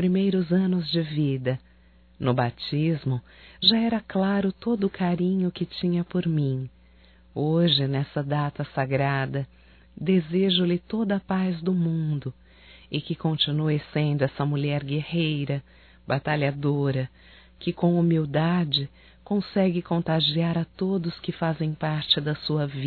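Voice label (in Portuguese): NATAL MADRINHA, feminino; Telemensagens 2017; Natal & Ano Novo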